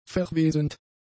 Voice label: "verwesend", German; adjectives